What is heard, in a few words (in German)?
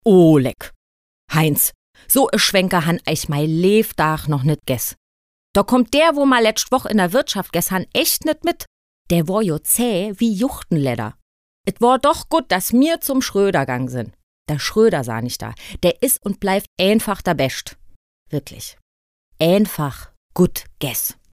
DIALEKT Saarlaendisch; LOFT VOICES